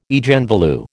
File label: "Eigenvalue", American